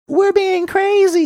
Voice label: saying were being crazy high pitched voice